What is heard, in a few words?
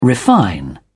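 "refine", English, uk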